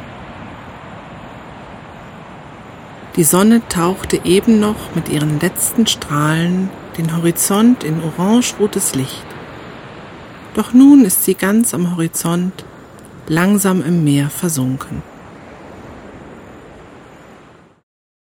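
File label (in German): Hawaii Vollmond weiblich, Phantasiereisen; Orte der Entspannung; Tiefenentspannung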